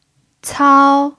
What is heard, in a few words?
"cao1", Mandarin pinyin